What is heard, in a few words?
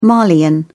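"malian", English, uk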